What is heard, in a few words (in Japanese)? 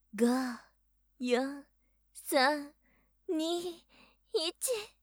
count, 射精前カウントダウンボイス; おまけ:カスタマイズ用音声